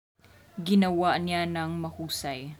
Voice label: Tagalog